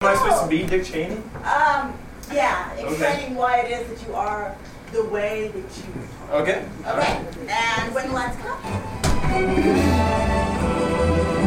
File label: USB Mic